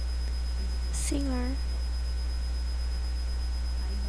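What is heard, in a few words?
"singer", spoken word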